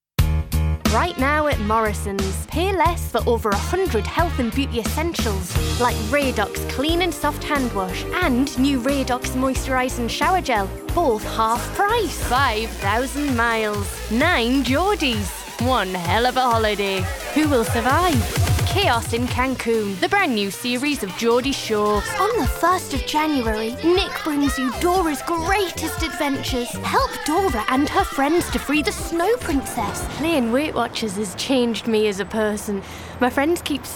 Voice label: female English northern accent commercial compilation; english northern accents